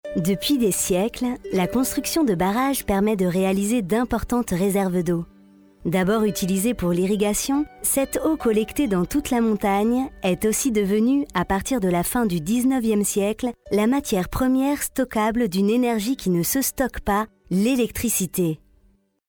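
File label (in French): French, female, commercial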